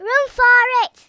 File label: run away, Kidz; Worms Armageddon